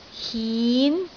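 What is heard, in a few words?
"hin2", Cantonese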